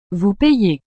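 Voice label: "vous payez", French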